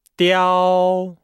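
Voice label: "diao", Chinese; Nihao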